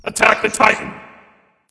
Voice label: vox attack m; smite; anubis

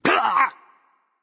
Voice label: grunt hit; Smite; bacchus

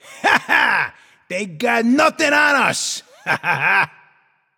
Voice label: nv yay; cupid

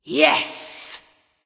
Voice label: emote yes; smite; arachne

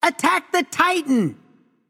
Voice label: vox attack m; smite; xbalanque

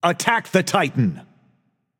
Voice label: vox attack m; smite; tsukuyomi

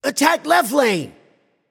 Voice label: vox attack; smite; raijin

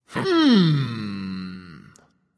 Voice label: thinking; cabrakan

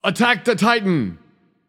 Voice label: vox attack; smite; raijin

